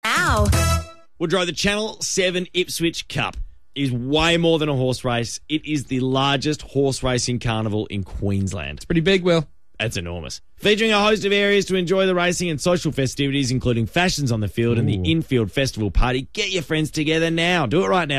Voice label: Friday Drive Live Read; Ipswich Cup; 97.3 FM